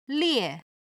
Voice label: "lie", Chinese

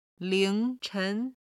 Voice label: "ling2che2", Chinese

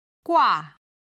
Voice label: "gua", Chinese

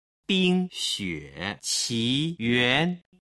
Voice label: "bingxueqiyuan", chinese

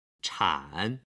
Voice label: "chan 3", Chinese

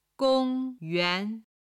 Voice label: "gong1 yuan2", Chinese

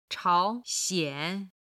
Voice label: "chao2 xian3", Chinese

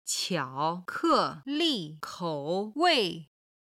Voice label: "qiao3 ke4 li4 kou3 wei4", chinese

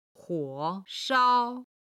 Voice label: "huo3shao1", Chinese

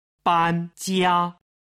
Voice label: "banjia", Chinese

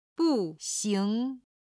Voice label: "bu4xing2", Chinese

